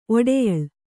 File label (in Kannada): "ಒಡೆಯಳು", Kannada